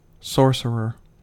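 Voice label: "sorcerer", US English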